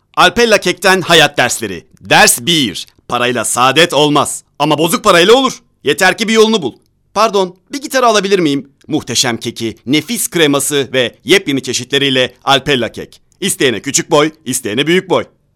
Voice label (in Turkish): REKLAM